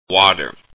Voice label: water north american english